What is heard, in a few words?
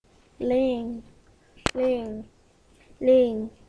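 "ring", spoken word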